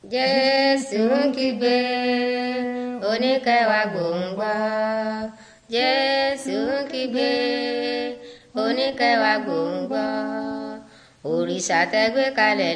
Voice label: words of life yoruba; yoruba bible